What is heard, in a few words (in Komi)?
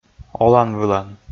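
"олан-вылан", Komi, male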